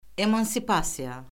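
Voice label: "emansipasiya", Azerbaijani; Orfoqrafiya Lüğəti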